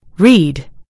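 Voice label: "read", American English; gcompris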